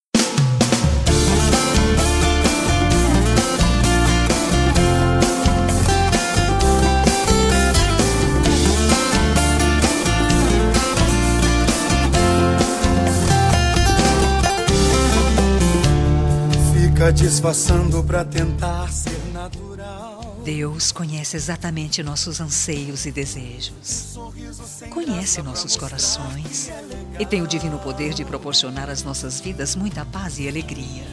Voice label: ROMANTICA EVANG FEM, telemensagem evangelica romantica

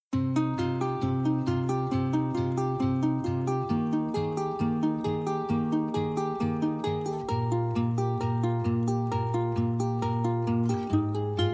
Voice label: Aniversario Filha Distante Feminino, telemensagem

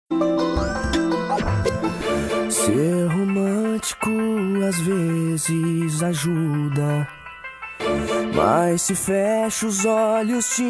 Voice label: ROMANTICA MASC, telemensagem romantica masculina

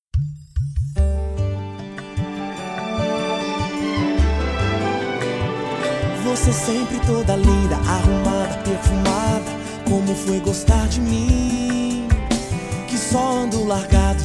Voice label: Aniversario Amante Masculino, telemensagem

